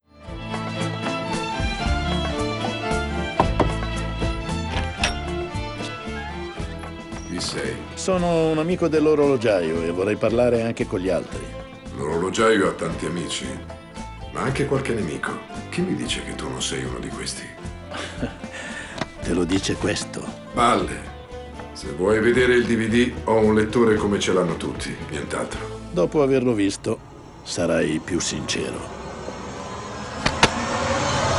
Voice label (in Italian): Trailer voci